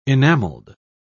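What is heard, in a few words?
"enamelled", British English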